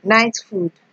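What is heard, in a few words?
"night food", Nigerian Pidgin, female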